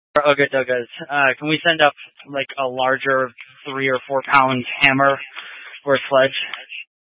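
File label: Incident Tac 3, Scanner audio recording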